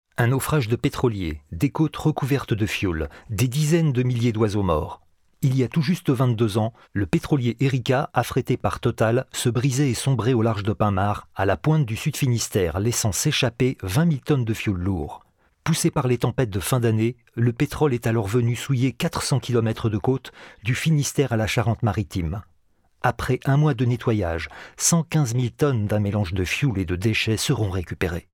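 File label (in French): voix documentaire; Enregistrements dans la cabine speak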